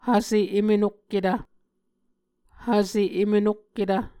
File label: "hvse emenokketv", Muscogee